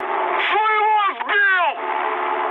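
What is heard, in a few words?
player killed ally, mood high, Russian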